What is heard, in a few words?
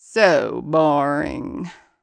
Vampiress Death